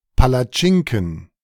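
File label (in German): "Palatschinken", German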